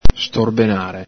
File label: "storbenare", Veneto